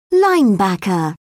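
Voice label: "linebacker", British English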